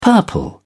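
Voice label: "purple", British English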